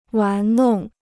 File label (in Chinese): "玩弄", Chinese